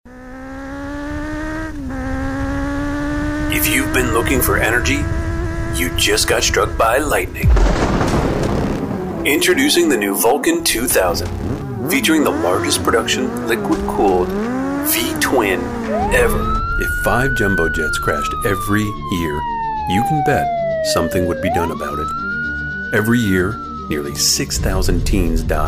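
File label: commercial, Male Voice Artist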